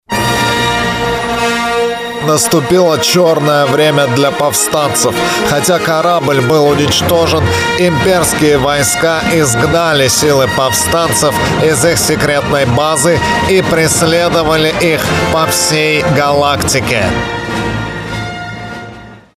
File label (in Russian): perevodchik filmov; volodarskij